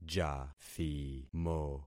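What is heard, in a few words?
"jathimo", concatenated syllables; Affixing experiment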